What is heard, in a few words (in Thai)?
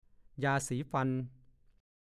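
"ยาสีฟัน" ภาษาโคราช; ยาเส้นที่ใช้ถูฟันในเวลากินหมากแล้วม้วนเป็นก้อนเล็ก ๆ จุกไว้ที่มุมปาก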